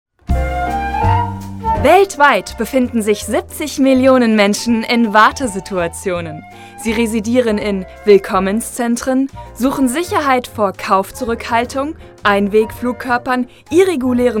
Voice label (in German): Abmoderation weiblich; Spot I - Euphemismus